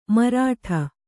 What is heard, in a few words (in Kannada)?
"ಮಾರಾಟ", Kannada